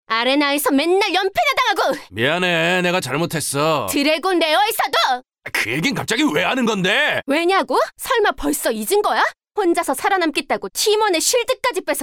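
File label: Korean, female, acting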